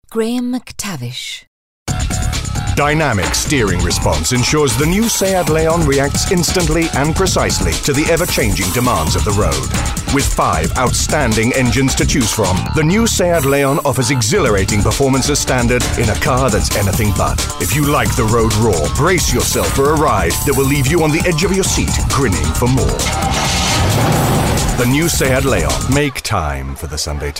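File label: commercials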